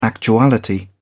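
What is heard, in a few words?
"actuality", English, British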